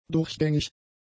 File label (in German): "durchgängig", German; adjectives